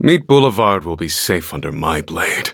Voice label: eng menu tap; Black Pudding

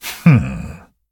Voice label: touch, en; Cookie Run

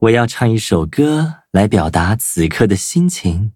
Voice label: loading, zh-hant

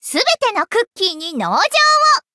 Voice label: upgrade, ja; cookie run

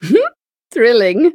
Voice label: upgrade, en; cookie run

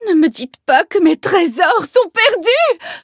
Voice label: defeat, fr; cookie run

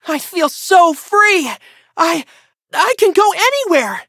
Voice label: level up, en; Cookie Run

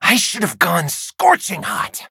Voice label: defeat, en; Cookie Run

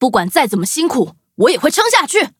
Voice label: loading, zh-hant; cookierunwiki

